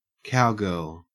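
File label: "cowgirl", Australian English; pronunciations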